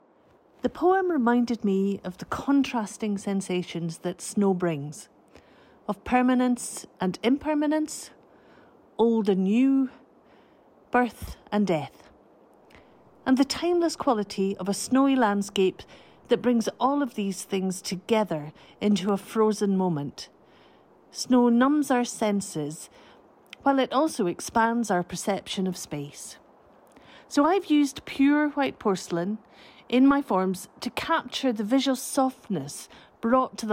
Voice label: audio voiceover